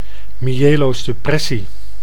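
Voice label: "myelosuppressie", Dutch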